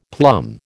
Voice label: "plum", American